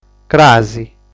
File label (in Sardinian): "Cras", sardu